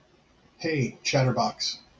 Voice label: hey chatterbox, en us